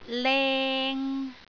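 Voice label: "leng3", Cantonese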